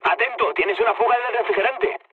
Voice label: damaged water, mood low, speech; War Thunder